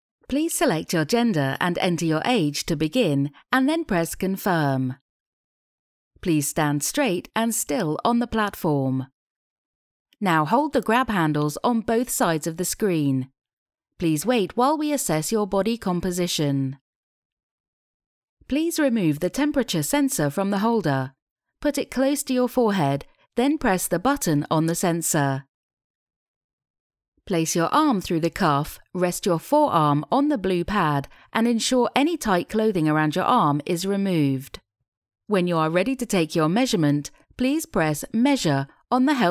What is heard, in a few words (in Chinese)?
外籍英女 英式 操作指南